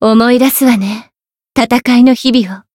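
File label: encounter, jp; hisumena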